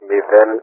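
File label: "0", Greek; Wingman; Digits; Speech; DCS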